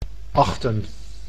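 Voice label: "achten", Dutch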